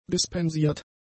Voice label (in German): "dispensiert", German; adjectives